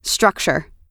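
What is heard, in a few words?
"structure", US English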